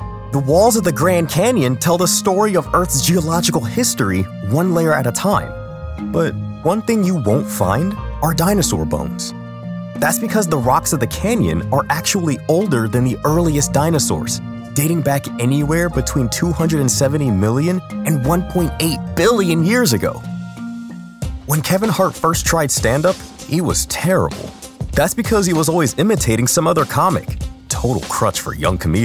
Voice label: Narration